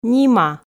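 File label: "нима", Komi, female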